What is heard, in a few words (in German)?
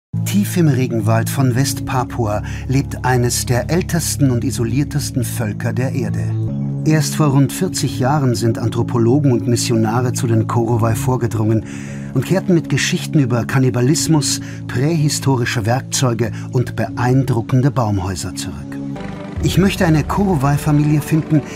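commentary, Neutral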